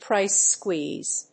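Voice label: "price squeeze", English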